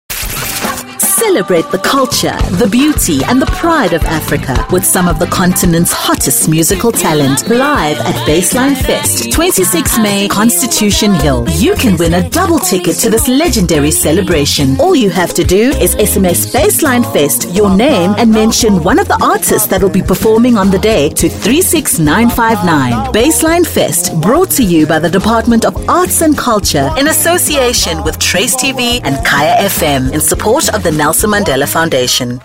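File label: South African English General; GoLocalise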